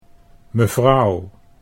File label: "mevrouw", Dutch